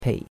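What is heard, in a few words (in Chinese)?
"pei3", Chinese; Cours-de-Chinois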